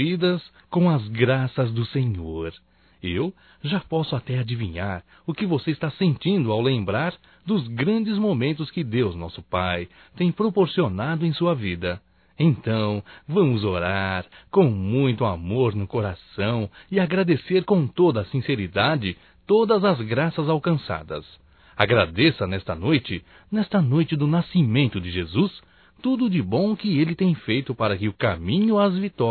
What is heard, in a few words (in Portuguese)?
Neutro Masculino, Natal; Telemensagens 2017; Natal & Ano Novo